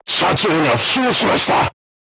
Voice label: voice message commander wounded, crew tanks japanese